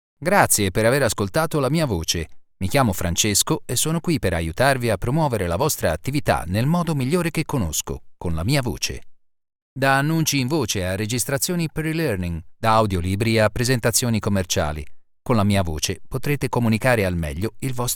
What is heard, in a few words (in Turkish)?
Italyanca Erkek; seslendirme